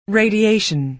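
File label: "radiation", American English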